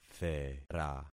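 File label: "thera", concatenated syllables; Affixing experiment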